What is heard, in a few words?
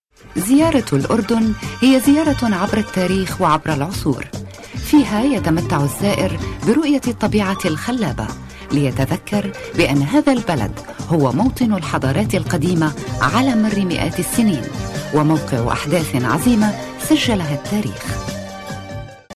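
Arabic; Arabic (Iraq), Middle Eastern, Male, 30s-50s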